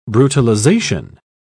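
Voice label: "brutalization", English, British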